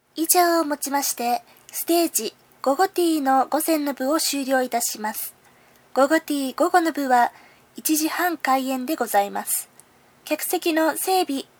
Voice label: announce